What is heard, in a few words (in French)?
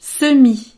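"semis", French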